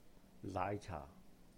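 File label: "náaih-chàh", Cantonese; milk tea (茶餐廳 menu); 奶茶; naai5caa4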